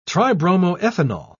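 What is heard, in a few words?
"tribromoethanol", British English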